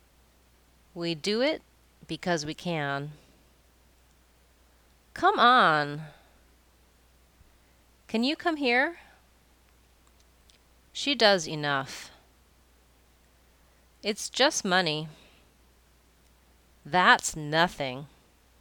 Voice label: stressed schwa phrases